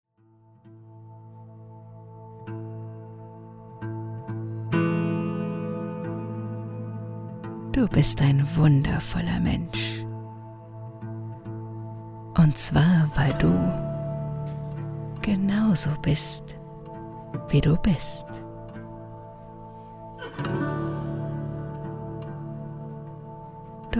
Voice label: Affirmation Meditation

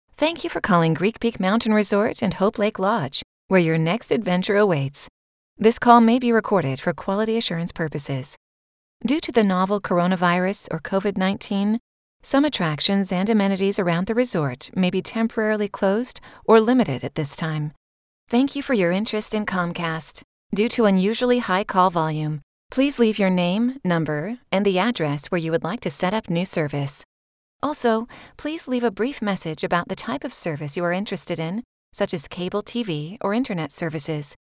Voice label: Phone

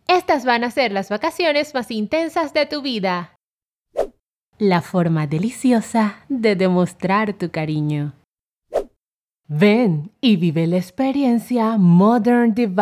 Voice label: Promo

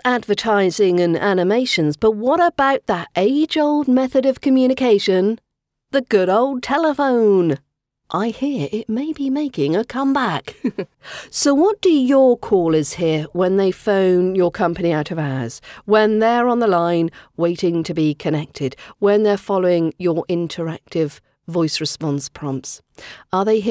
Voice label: Welcome Voiceover Services

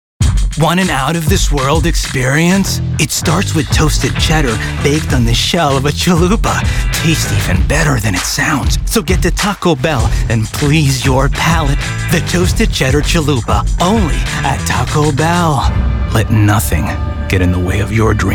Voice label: Commercial

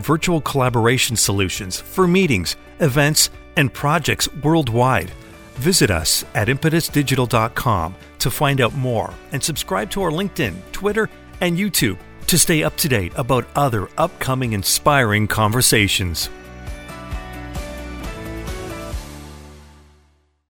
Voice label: Professional Podcast Outro

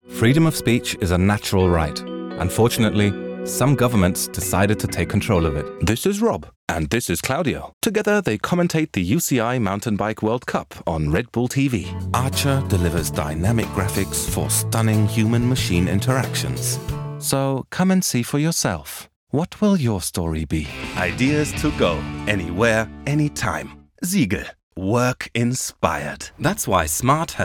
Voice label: International English

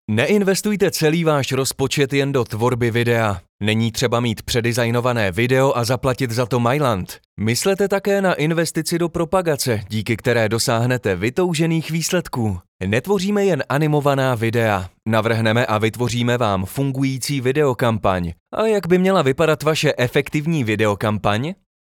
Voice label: Promotional

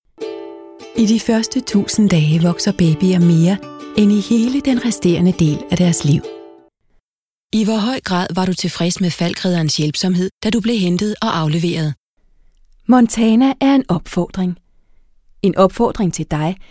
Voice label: corporate

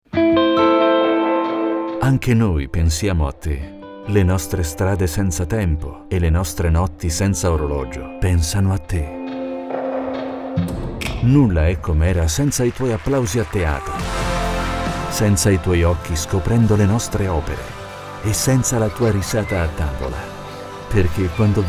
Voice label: Emotional Italian; Madrid

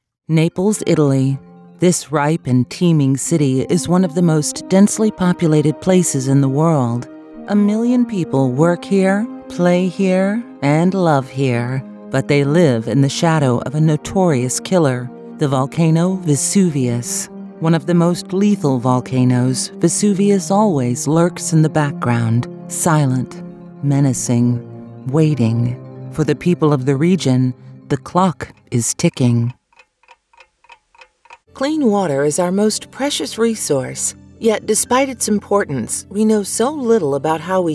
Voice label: English Narration

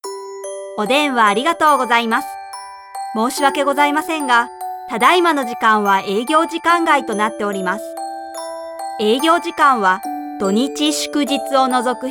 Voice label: Telephone

